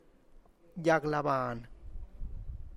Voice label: tlacochahuaya, Zapotec; pulpit